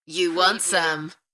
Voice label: UK female You want some; beanstalk audio

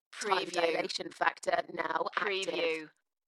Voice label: UK female, Dalekness; beanstalk-audio

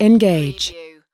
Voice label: US female engage; lynne publishing

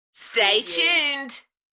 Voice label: UK female, Stay tuned, Enthusiastic; beanstalk audio

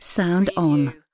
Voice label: UK female sound on; lynne publishing

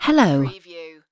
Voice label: "hello", UK female; lynne publishing

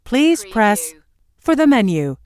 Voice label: US female phone prompt Please press for the menu; lynne publishing

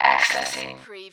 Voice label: Robot voice - accessing

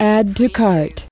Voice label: US female add to cart; lynne publishing

